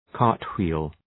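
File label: "cartwheel", English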